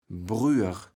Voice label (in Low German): "Brüer", kaevels platt